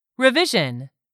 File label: "REVISION", english; 3000 words